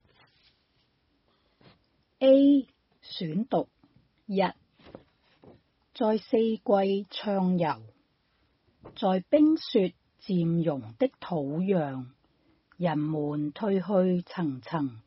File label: Cantonese Read Aloud, Cantonese Read-aloud Recording; 2021 ANCCS 國粵語朗讀稿漢語拼音 Read Aloud Articles